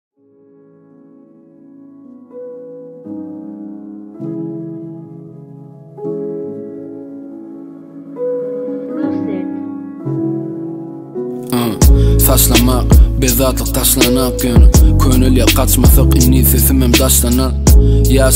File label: turkmen rap